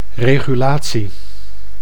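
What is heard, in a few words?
"regulatie", Dutch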